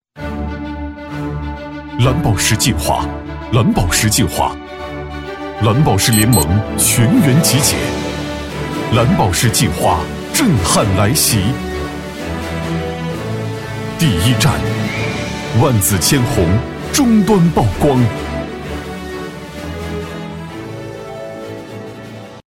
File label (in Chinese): 男, 企业宣传, 激情有力; 厚重有力; 激情力度; 蓝宝石计划